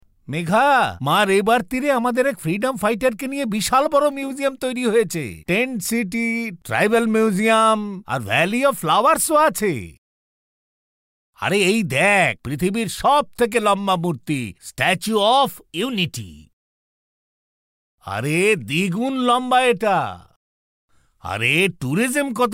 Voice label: Character Voice

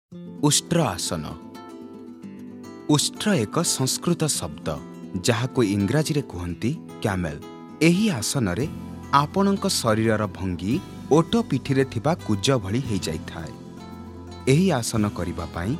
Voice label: MALE DOCUMENTRY

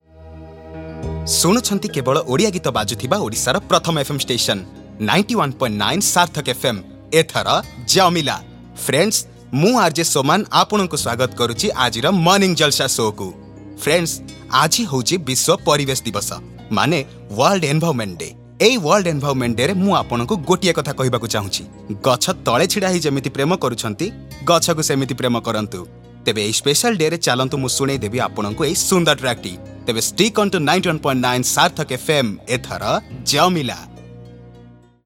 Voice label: MALE RJ

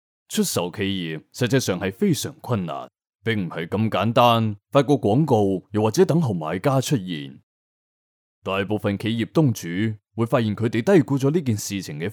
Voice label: Commercial

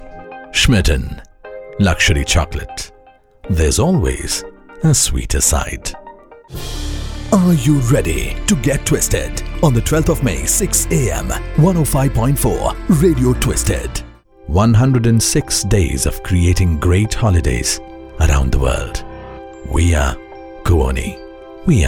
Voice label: Commercials

